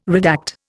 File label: "redact", Indian